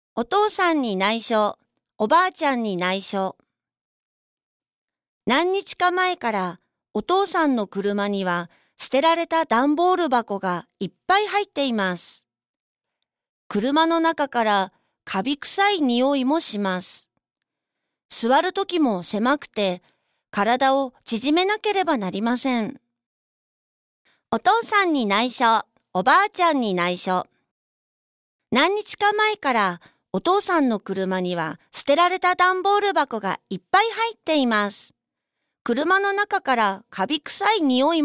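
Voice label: japanese female character; voice demos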